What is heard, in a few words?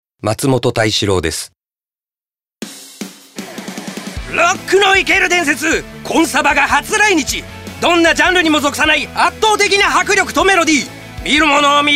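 commercial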